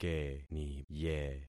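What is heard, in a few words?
"keniye", concatenated syllables; Affixing experiment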